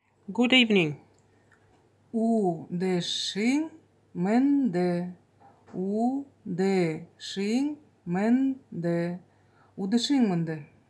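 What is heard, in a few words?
Good evening, Buryat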